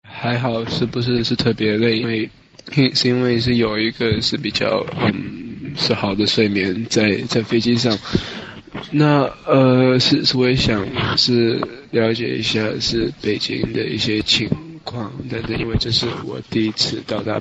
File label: Chinese Conversation